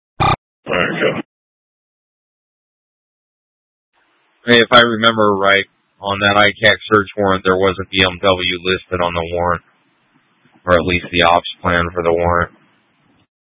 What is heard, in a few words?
Mutual Aid-Intersystem Interop A, scanner; AMBER Alert